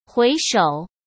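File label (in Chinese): "回首", Mandarin Chinese; hsk; chinese-flashcards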